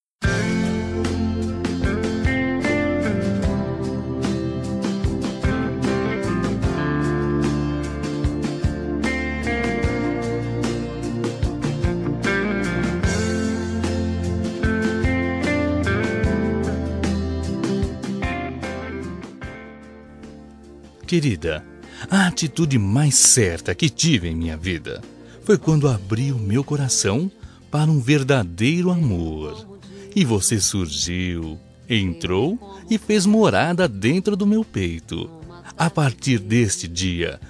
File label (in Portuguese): Romantico Masculino, telemensagem romantica masculina